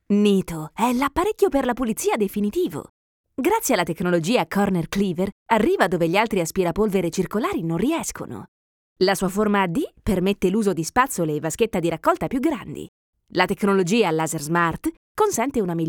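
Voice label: Italian, female, commercial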